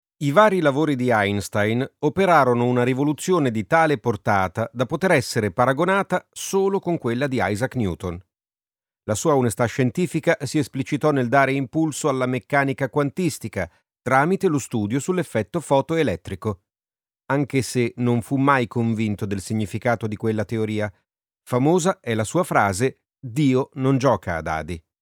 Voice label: Italian e-learning